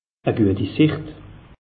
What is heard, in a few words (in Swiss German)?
"guetisicht", alsace; meteo